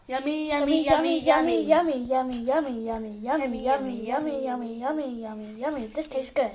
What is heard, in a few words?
yummy yummy, Children